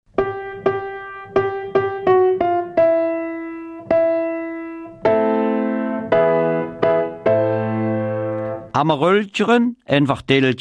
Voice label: Eupener Mundart; De Öüpener Originale

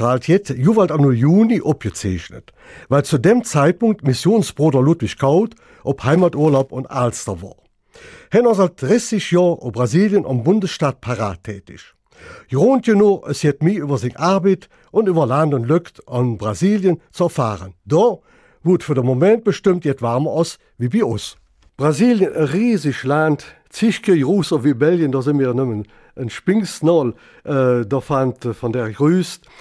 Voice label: Mundart Sendung; Watt jett et Nöjes!

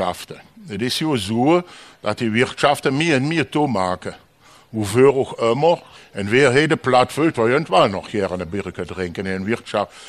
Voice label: Eupener Mundart, Lieder und Geschichten auf Öüpener Platt

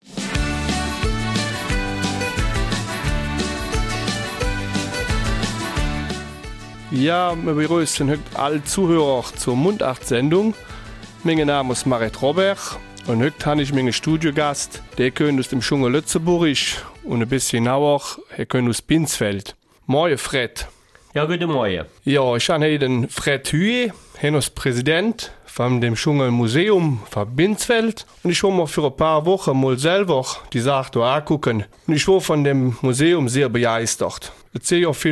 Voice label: Eifeler Mundartsendung; Das Heimatmuseum in Binsfeld

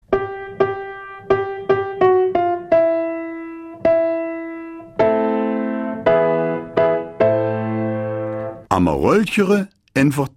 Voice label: Mundart; Nikolaus in früheren Zeiten; Feuerwehr St Vith sucht neue Kadetten

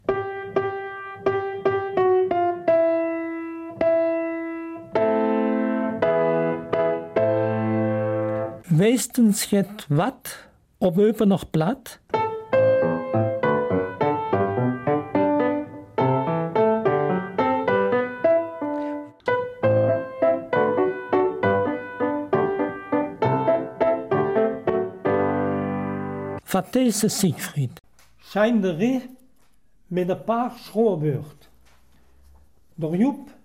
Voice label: Eupener Mundartsendung; mit besonderen Ausdrücken und einem deftigen Streitgespräch

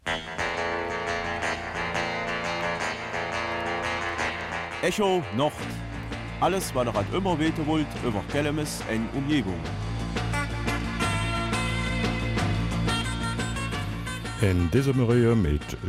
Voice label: Kelmiser Mundart Volksfest in Walhorn; 25 Jahre

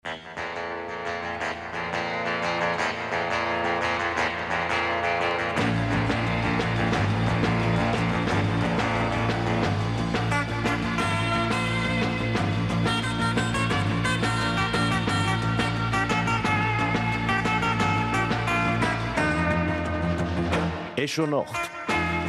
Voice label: Mundart; Das große Sterben eines Bahnhofs

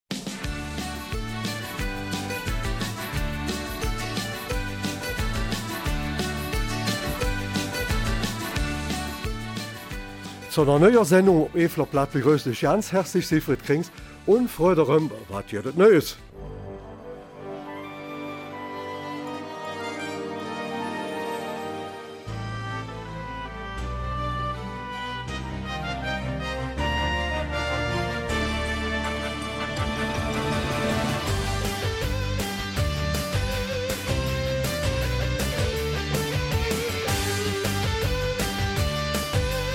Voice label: Eifeler Mundart; Landgasthaus Balter in Losheim

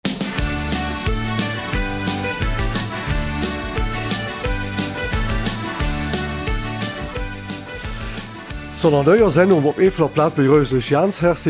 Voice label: Mundart; Whisky oder Whiskey

